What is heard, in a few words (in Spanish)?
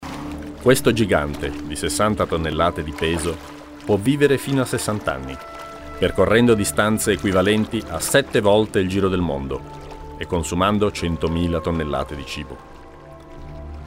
locutor italiano